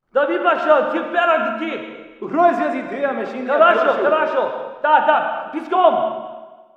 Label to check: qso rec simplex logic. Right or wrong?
Wrong